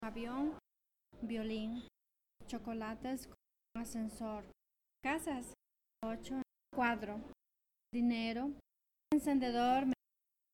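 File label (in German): Zusammengefugte Worter Schnell Spanisch, female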